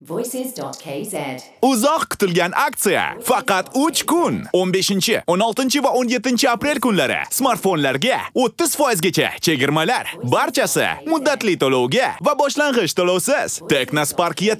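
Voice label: Dictor Uzbek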